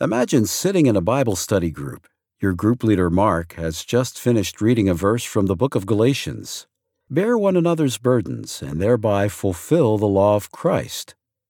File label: Audiobook